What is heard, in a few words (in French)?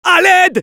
Medic help me, fr; "À L'AIDE !"; Robot Medic